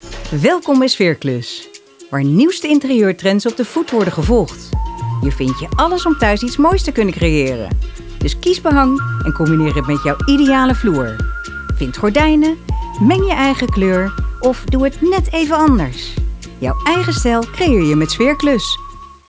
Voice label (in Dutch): Commercial; Sfeerklus